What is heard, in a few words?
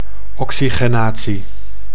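"oxygenatie", Dutch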